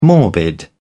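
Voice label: "morbid", British English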